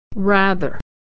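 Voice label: "rather", American English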